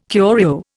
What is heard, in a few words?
"curio", Indian